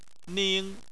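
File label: "ning" first tone, Chinese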